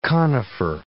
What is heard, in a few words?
"conifer", English